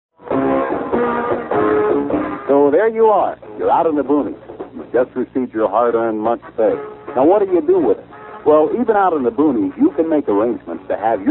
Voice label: Checking PSA Becomes Network Difficulties Announcement